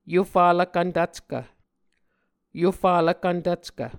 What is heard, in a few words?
"Yofalv Kvntvckv", Muscogee